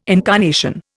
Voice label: "incarnation", Indian

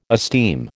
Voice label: "esteem", American

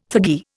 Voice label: "thuggee", Indian

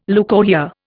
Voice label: "leucorrhoea", Indian

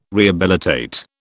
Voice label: "rehabilitate", British

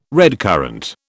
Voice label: "redcurrant", British

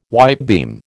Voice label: "whitebeam", American

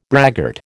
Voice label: "braggart", American

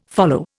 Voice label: "follow", Indian

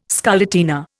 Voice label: "scarlatina", Indian

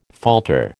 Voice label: "falter", American

